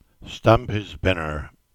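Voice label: "Binner Stamps", Cornish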